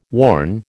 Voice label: "worn", American